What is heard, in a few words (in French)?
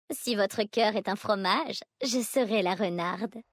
VF Vulperin Female Flirt; Coeur Fromage